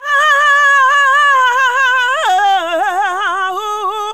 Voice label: "2", female

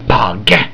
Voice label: "zero", Talking Clock; Klingon BG App

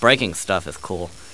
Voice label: breaking stuff, speech; World of Amiga; butthead

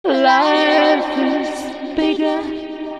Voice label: VOICE FX, Drum Bass, 160; eJay

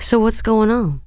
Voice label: going on, female

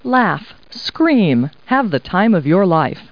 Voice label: people, kid

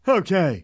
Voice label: "okay", phrases funny; Aristosoft, Inc.

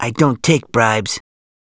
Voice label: No bribe, Male; gangsters

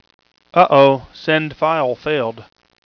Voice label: Send File Failed Male

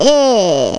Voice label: ESP Aww; Total Distortion Big Demo; ESP man

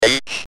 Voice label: "H", Speak & Spell UK

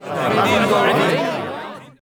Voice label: elves murm

